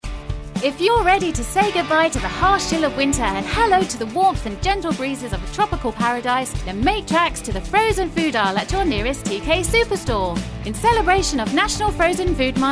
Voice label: commercial